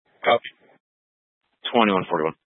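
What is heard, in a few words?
Police Dispatch, Scanner audio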